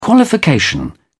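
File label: "qualification", English, British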